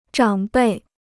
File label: "长辈", Chinese